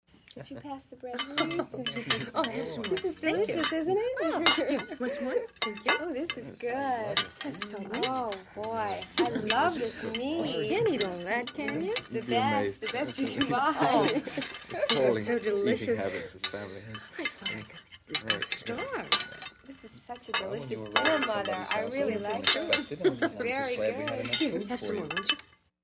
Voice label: Mealtime Chatter, party